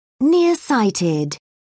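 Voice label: "nearsighted", British English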